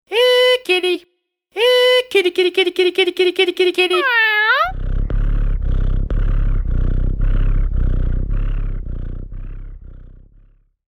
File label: Sound of man shouting ‘Here Kitty’ with cat meow response; Sound of man shouting ‘Here Kitty’ with cat meow response and purr.; Calling The Cat; Greetings and Goodbye